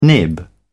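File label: "nib", British English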